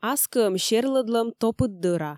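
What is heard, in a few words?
"ас кыӧм серлӧдлӧм топыд дӧра" Komi, female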